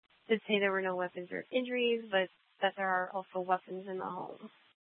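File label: police department dispatch; Mesa PD; 3 Red Mnt Dispatch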